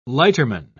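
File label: "lighterman", English, British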